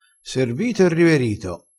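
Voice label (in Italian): "servito e riverito", vocabolario dialettale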